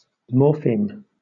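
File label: "morpheme", British English; pronunciations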